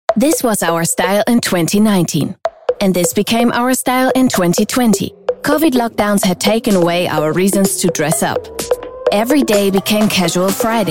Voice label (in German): Englisch Voiceover; Zalando